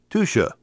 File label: "TUSCHE", pronoun